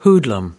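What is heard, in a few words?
"hoodlum", American English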